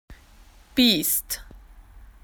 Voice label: wild animal, spoken AU; PictureDictionary

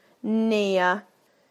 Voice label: "near", UK; PictureDictionary

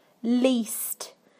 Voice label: "least", UK; PictureDictionary

